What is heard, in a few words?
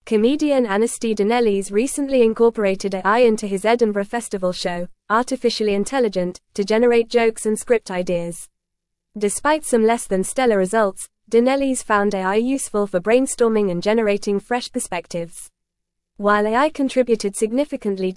English Advanced FAST Reading; Comedians Embrace AI for Joke Writing and Shows